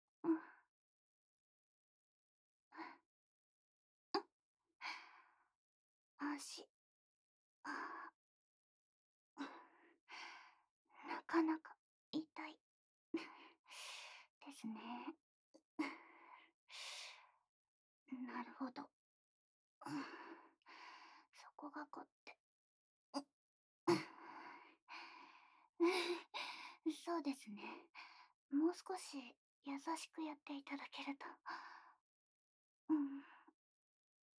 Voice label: 媚薬入りローションでアソコまでマッサージ, ASMR; SEなし

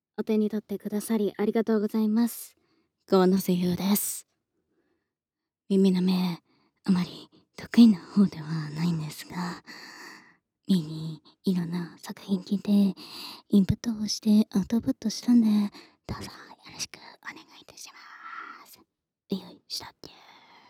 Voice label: フリートーク; 耳舐め&吐息ぐっぽり10時間♪

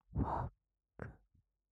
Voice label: 【右】ファック, ASMR; 淫語

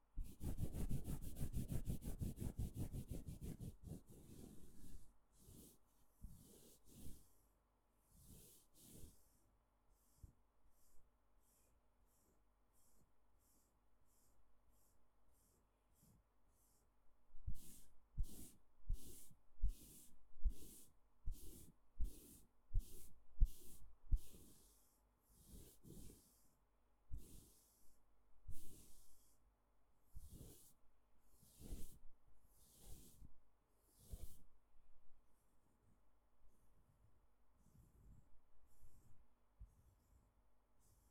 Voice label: マッサージ右, ASMR; 耳が気持ちいいASMR【マッサージ/耳かき/タッピング…】

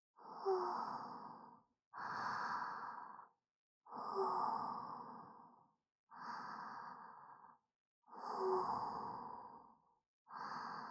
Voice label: 添い寝ループ, ASMR; メイドさんとイチャラブ子作り生活～メイドのサナ～; KU100

